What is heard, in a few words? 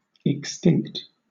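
"extinct", British English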